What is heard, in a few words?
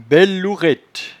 "bellelourette", patois jura